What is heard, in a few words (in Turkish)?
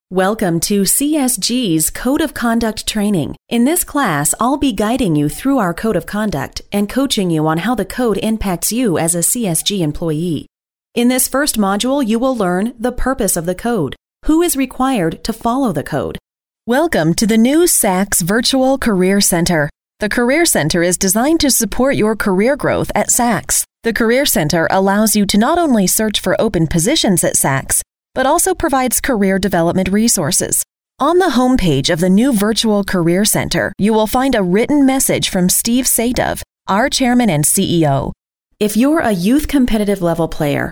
Ingiliz Ingilizcesi Kadin; seslendirme